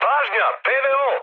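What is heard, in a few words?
Serbian damaged aa mood med, speech; War Thunder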